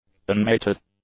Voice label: "unmated", English; adjectives

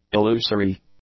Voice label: "illusory", English; adjectives

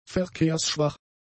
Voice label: "verkehrsschwach", German; adjectives

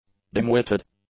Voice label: "dimwitted", english; adjectives

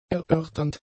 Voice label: "erörternd", German; adjectives

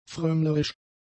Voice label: "frömmlerisch", German; adjectives

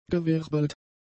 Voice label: "gewirbelt", German; adjectives

